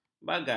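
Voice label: "bagal", Malay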